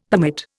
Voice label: "permit", Indian; Dictionary